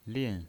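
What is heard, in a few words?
"lin", pinyin, Mandarin Chinese, fourth tone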